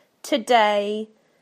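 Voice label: "today", British English; PictureDictionary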